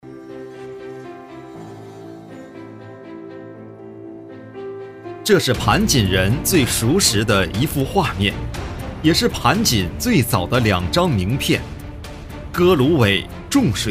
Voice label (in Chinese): 男 宣传片 城市旅游; 盘锦宣传